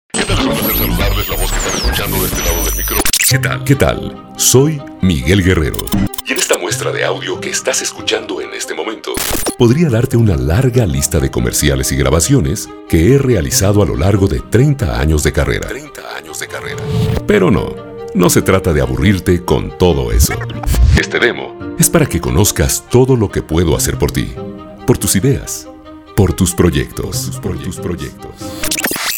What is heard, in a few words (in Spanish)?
LOCUTOR